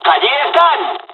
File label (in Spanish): dialog, dialogs spanish; us midway; War Thunder